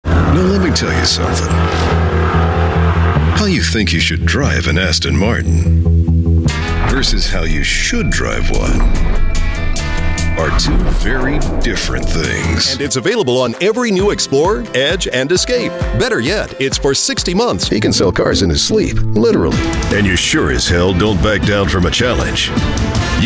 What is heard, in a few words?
car spots